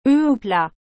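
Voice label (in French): "œufs au plat", French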